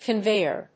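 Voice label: "conveyor", English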